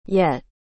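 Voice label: "yet", English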